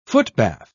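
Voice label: "footbath", British English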